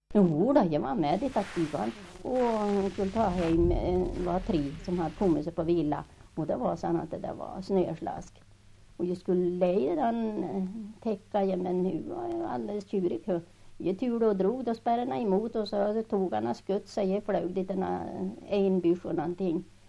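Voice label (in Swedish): Revsund Jämtland, dialekter, svenska sagor; Folkminnen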